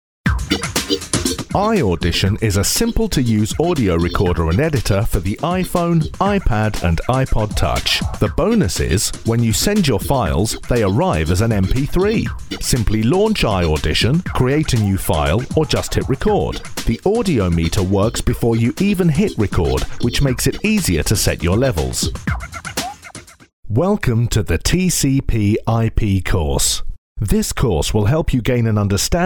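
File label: English British male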